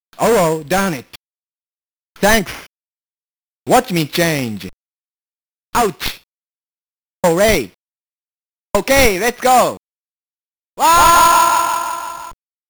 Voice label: English Voice; psycho soldier; msx; Sie Kensou

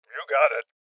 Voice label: Voices Confirmation Male Old; rebel cops 2019

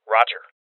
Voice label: Voices Confirmation Male Young

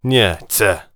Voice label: warrior conversation emotion neutral

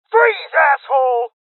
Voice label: Voices Freeze Male Young; Rebel Cops

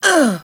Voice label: Soldier Female

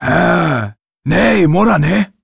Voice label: Warrior emotion curious

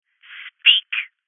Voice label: Voices Interrogate Female

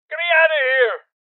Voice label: Voices Injury Male Old; rebel cops 2019 gamerip

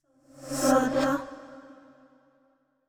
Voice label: sad short; yamiko

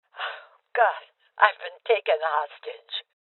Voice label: Voices Hostage Taken Female Old

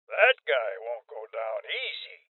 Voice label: Voices Heavy Spotted Male Old; rebel cops 2019

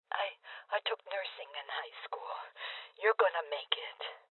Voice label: Voices Heal Female Old; rebel cops 2019 gamerip

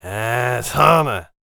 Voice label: warrior conversation emotion question

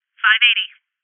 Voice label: Confirmation Female

